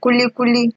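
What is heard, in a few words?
"kulikuli", Nigerian Pidgin, female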